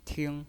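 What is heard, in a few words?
"ting", pinyin voice, first tone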